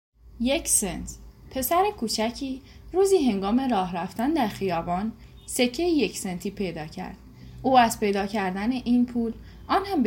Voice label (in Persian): WhatsApp Audio, پادکست صوتی زیبا و پند آموز با عنوان یک سنت